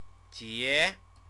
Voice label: "jie", Chinese